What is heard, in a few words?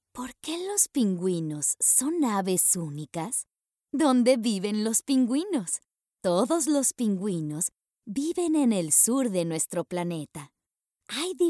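Spanish Voice Over, Grades 1-3 Vocabulary; eLearning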